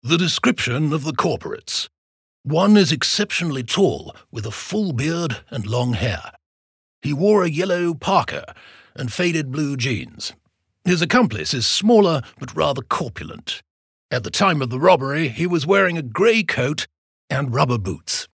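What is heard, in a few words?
British English, Charge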